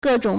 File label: "ge4 zhong3", Chinese